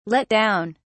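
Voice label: "let down", British English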